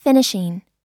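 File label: "finishing", US English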